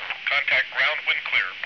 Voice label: CallGround; F⁄A-18 Hornet 2.0